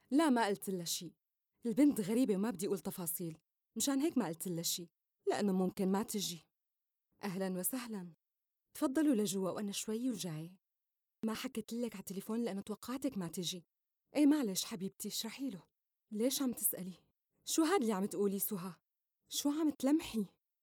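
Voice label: arabic syrian female character; voice demos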